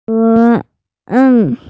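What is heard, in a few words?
"responsibility", Mandarin; ze2ren4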